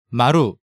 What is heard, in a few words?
"Maru", Hangeul sounds; DigitalSoju